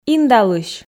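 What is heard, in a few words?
"индалысь", Komi, female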